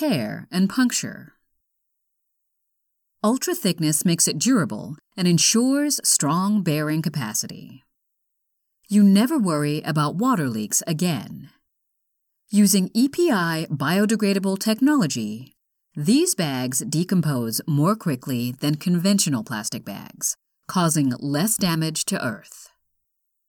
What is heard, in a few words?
trash bag dubbing 试音